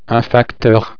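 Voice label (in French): "facteur", French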